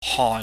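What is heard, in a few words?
"hon", Cantonese, low falling tone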